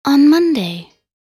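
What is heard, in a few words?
voc en week, English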